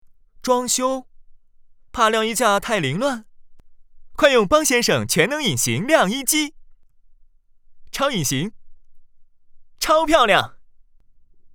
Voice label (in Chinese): 男 电梯广告; 邦先生